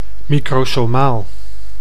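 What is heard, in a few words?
"microsomaal", Dutch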